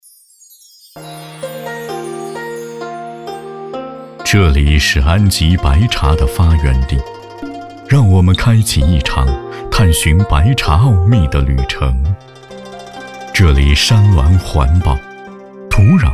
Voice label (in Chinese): 男 茶叶宣传; 安吉白茶